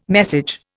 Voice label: "message", Indian